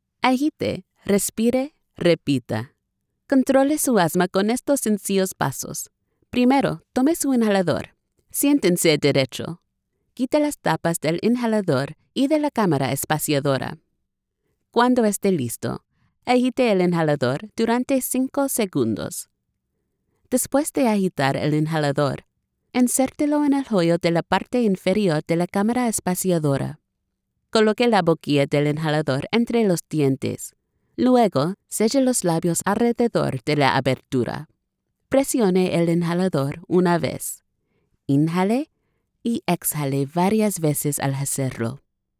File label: Spanish Explainer Narration